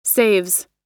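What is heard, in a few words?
"saves", American English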